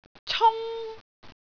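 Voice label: "cung1", Cantonese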